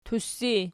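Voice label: "tussi" nominative, Archi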